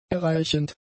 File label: "erreichend", German; adjectives